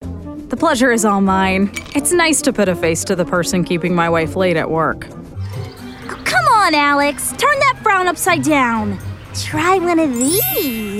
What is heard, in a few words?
Voiceover, Commercial, Women; New York